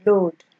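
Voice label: "load", Nigerian Pidgin, female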